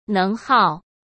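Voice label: "能耗", Mandarin Chinese; hsk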